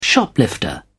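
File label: "shoplifter", British English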